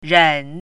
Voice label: "ren3", Chinese pinyin

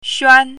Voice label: "xuan1", Chinese pinyin

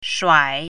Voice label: "shuai3", Chinese pinyin

